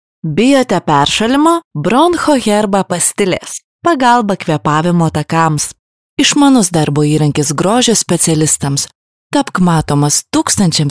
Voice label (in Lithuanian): lietuviu, moteris